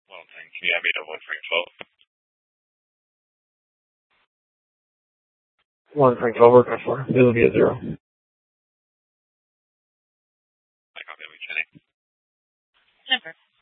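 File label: police dispatch, Scottsdale PD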